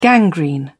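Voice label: "gangrene", English, British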